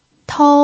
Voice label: "tou1", pinyin